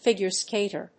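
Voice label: "figure skater", English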